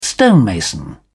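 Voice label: "stonemason", English, British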